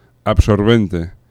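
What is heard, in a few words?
"absorbente", Spanish; laboratori fonetica; aproximants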